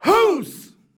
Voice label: "house", shouts; Best Service; Hyper Dance

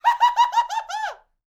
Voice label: MEXICO VOICE, MEXICAN; ILIO; Vocal Planet VOL-5

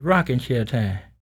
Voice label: SPOKEN, Blues Soul, TALK

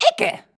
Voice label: "icke", shouts; Best Service; Hyper Dance

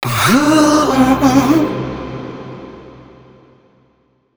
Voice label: male x130, Dance Ultra Voice adlips; Mutekki Media; Dance Ultra Voice VOL-1

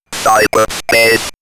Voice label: Robot Cyber Space, robot vox; X-Static Goldmine

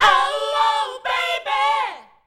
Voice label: "ouou baby", shouts; Best Service; Hyper Dance

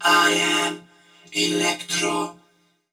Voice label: "I Am Electro", vox; Zero-G; Soundclash Nu Dance Electro; Acidized

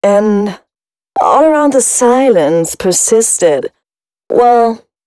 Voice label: female spoken hooks, dance ultra voice

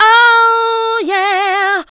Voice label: OHOO YEAH, SHOUTS, Funky Vocals; USB Soundscan vol.18; AKAI

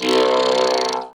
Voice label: VOCODER VOX; Masterbits - Tekkno Trax

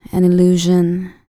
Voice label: FEM SPOKEN; ILIO; Vocal Planet VOL-4; R&B & Dance

